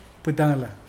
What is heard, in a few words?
burned feeling, Mokilese